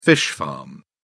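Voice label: "fish farm", British English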